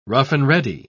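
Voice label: "rough-and-ready", British English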